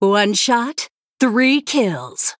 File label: Female Human Play